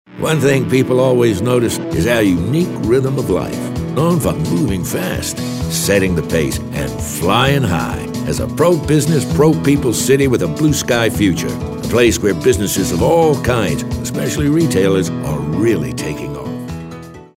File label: announcer